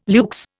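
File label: "luxe", Indian English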